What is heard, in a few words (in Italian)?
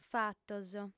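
"fatos", lingua sarda, female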